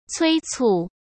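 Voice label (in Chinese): "催促", Mandarin Chinese; hsk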